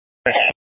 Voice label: Fire Department Dispatch